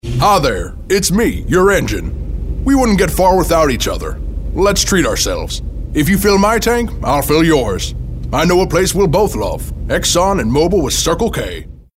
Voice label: character